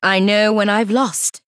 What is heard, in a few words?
Female Human Concede; PVPDR Fireheart